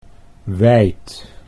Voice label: "wijd", Dutch

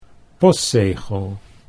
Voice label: "postzegel", Dutch

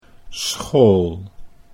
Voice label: "school", Dutch

